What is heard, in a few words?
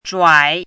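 "zhuǎi", Mandarin Chinese; 转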